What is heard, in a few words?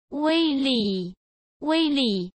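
"wei li4", Mandarin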